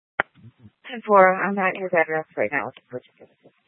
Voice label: Tempe PD, scanner; A04 South